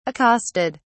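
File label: "accosted", British English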